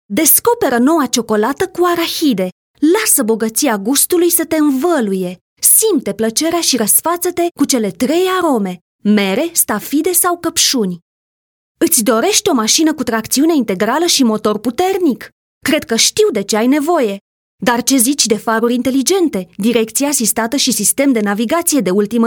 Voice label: romanian

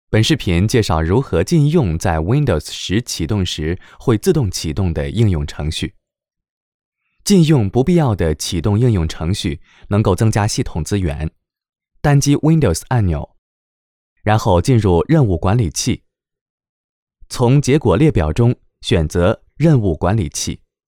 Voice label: Mandarin Male

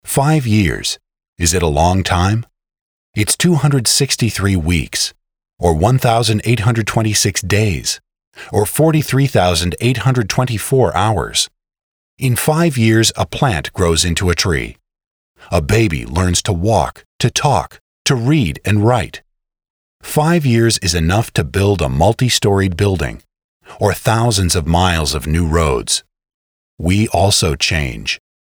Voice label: English voice

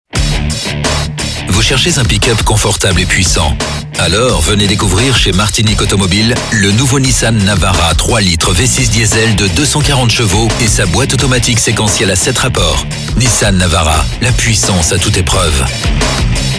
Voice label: french voice

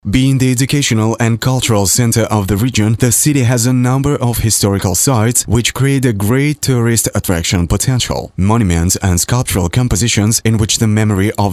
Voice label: english

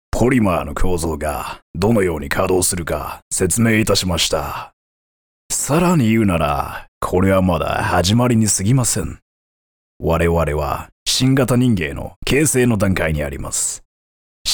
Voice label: Deep sounding

